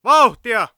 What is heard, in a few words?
neutral